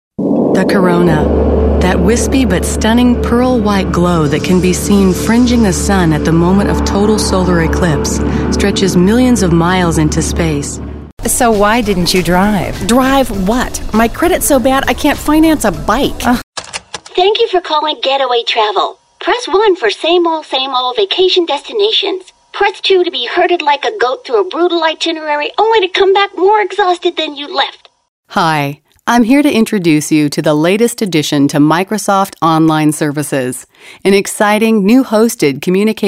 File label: General VO